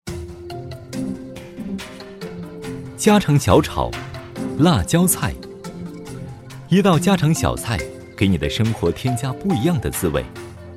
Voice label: 男-专题; 舌尖

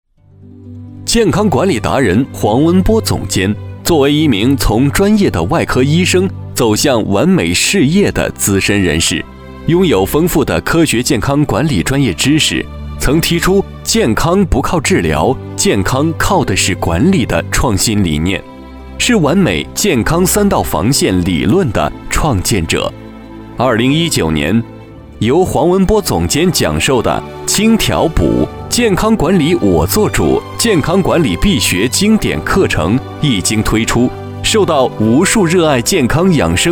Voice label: 男 公司 人物介绍

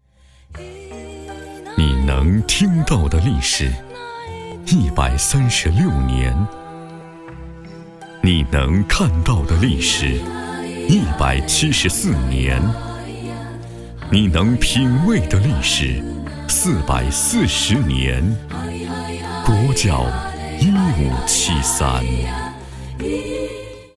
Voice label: 男 酒类广告; 国窖1573

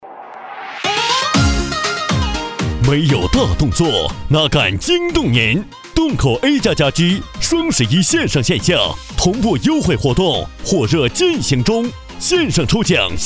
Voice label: 男 激情叫卖 家居

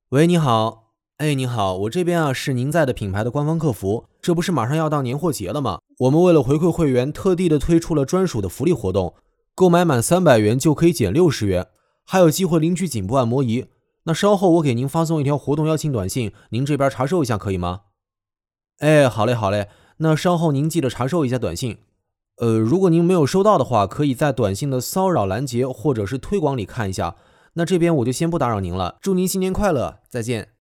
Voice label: 男 MG 电话客服

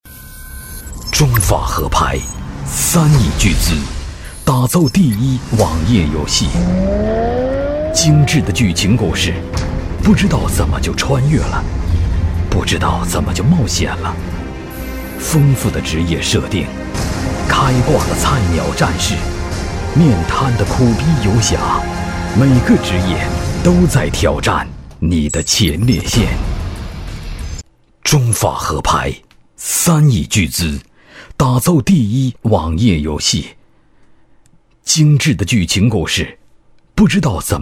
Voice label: 男 游戏预告

